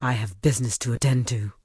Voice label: Tauren Female Official NPC Pissed